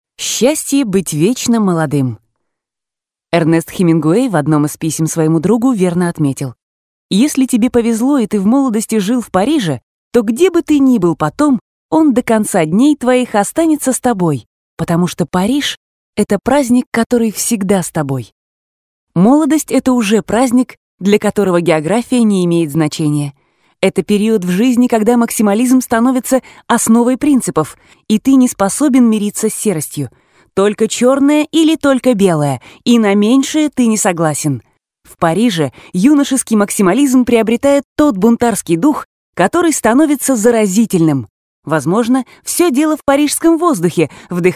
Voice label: Audiogid Paris; Аудиогид по авторскому маршруту Париж